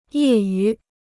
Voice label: "业余", Chinese